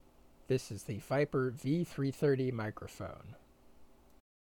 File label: Microphone Test Samples Viper V330; XPG Precog Gaming Headset; XPG Precog Gaming Headset Review